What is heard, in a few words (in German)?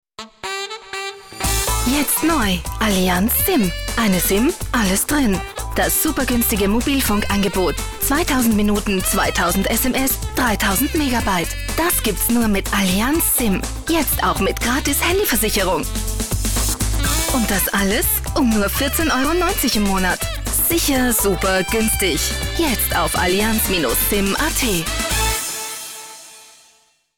Frau OFF